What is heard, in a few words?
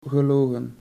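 "gelogen", Dutch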